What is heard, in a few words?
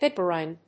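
"piperine", English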